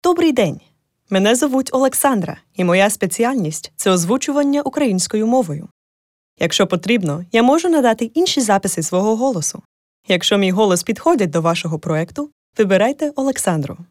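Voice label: Ukrainian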